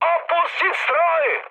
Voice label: bailout, speech cze, mood high; War Thunder

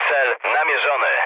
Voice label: near waypoint mood low, speech pol; War Thunder

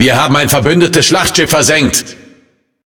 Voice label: Speech Destroy Ally Battleship, DE; World Of Warships

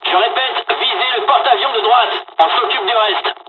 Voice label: dialog, dialogs french; War Thunder; midway

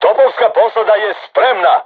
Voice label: voice message art charged, crew tanks serbian

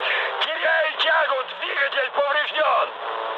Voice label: damaged engine, mood high, speech ru; War Thunder

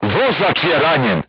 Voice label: voice message driver wounded, serbian crew tanks

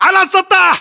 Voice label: location rooftop, localized english battlechatter

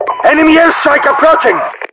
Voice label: enemy air, voiceovers RU; mpvoice

